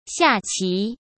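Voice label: "下棋", Mandarin Chinese; chinese flashcards; hsk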